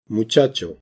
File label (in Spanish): cons ch muchacho, castilla masculino; spansk; fonetica